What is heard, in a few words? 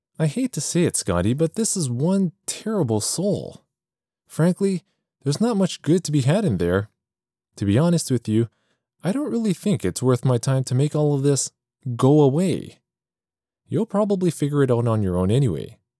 character